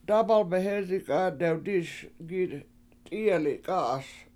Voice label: "dAAbal behEzinga7 dewdI7iS t7IIyeli7 k7A7AS" Sagebrush Is Small Trees Are Big, Washo